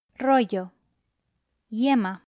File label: "rollo", Spanish